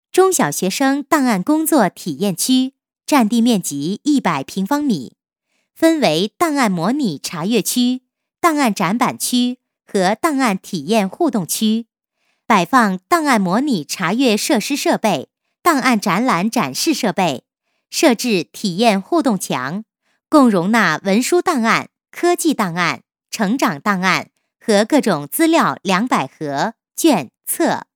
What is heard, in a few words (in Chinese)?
女53 课件 档案查阅模拟区, 国语女声配音; 省台女配